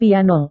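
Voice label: "piano", Indonesian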